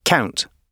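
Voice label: "count", British English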